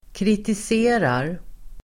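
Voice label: "kritiserar", Swedish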